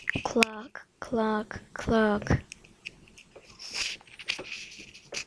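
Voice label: "clock", spoken word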